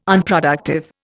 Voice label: "Unproductive", Indian

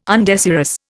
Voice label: "undesirous", Indian

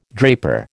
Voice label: "draper", American

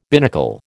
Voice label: "binnacle", American

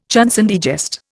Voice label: "chanson de geste", Indian

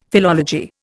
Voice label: "philology", Indian; Dictionary

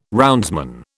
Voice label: "roundsman", British

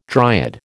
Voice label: "dryad", American

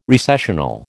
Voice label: "recessional", American

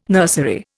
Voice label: "nursery", Indian

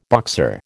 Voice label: "Boxer", American

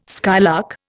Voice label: "skylark", Indian

